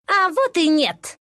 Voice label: deny, ru; dota2

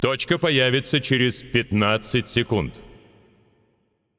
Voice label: Announcer Russian Match Point Spawn

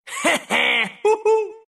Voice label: deny, ru; Dota 2

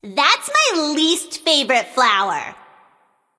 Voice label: Taunt Directed; Bee Cupid; Ne Zha

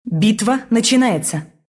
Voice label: Announcer battle begin ru; Dota 2

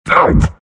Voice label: anger; Centaur

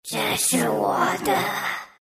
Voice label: deny, zh; Dota 2

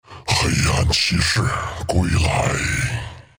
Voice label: respawn zh; Dota 2

